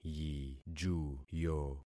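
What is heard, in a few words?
"yijuyo", concatenated syllables; Affixing experiment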